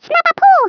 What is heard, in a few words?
"Hurry", Swedish, Speech; 11khz; International